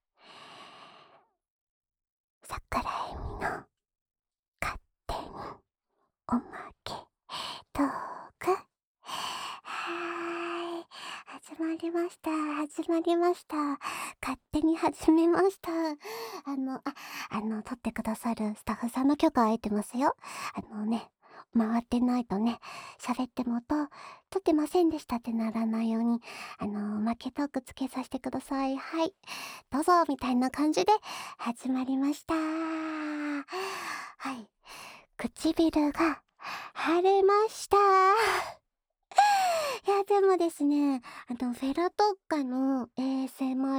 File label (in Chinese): 自由谈话